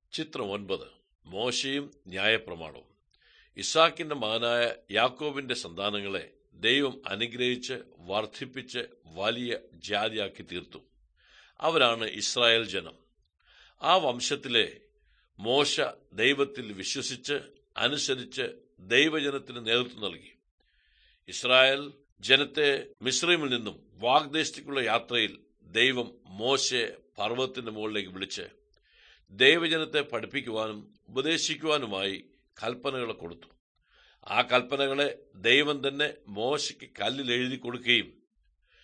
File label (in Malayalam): good news malayalam, drama